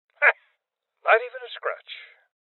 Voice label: Voices Armor Shot Male Old; rebel cops 2019 gamerip

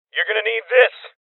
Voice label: Voices Throw Clip Male Young; rebel cops 2019 gamerip

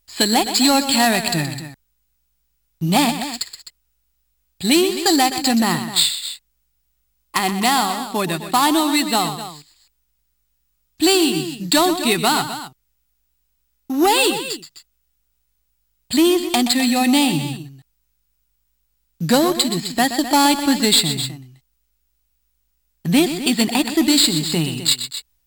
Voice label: Announcer (English Version); namco game sound express vol.19 mach breakers

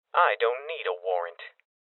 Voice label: Voices Open Safe Male Young; rebel cops 2019

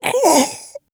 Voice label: warrior kill aerial

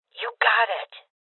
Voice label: Confirmation, Female Old; Rebel Cops

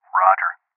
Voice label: Confirmation Male

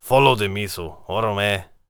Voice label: warrior conversation emotion neutral

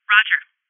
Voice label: Confirmation Female

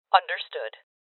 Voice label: Confirmation Female; rebel cops 2019 gamerip; Voices

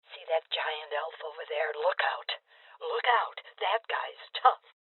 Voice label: Voices Heavy Spotted Female Old; rebel cops 2019 gamerip

